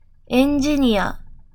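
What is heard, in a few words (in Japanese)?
"エンジニア", Japanese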